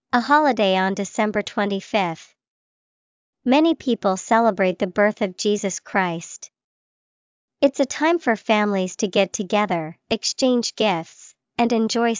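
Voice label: christmas, English vocabulary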